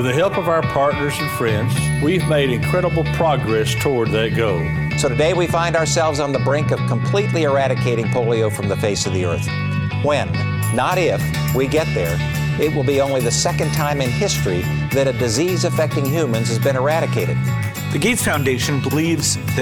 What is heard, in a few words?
Rotary PSA